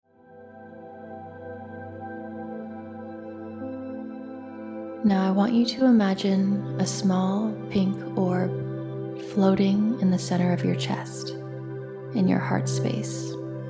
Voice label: Feminine Energy Meditation